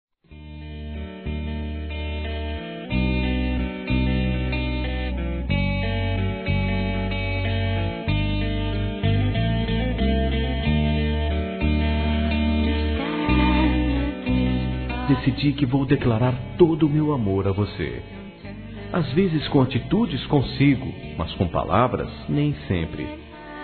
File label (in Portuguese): PAI M, masc; Telemensagens; Telemensagens 2017